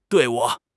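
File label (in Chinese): "队伍", Chinese; HSK6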